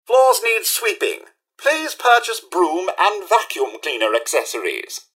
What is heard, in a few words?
idle chatter; mrhandy